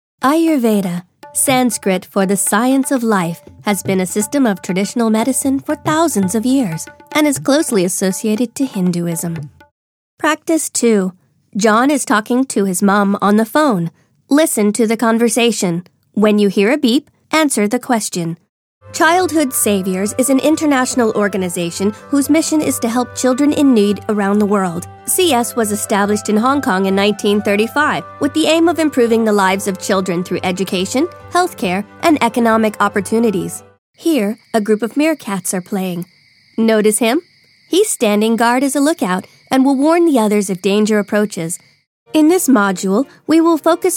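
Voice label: American, narration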